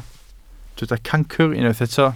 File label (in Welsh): "cancr", Welsh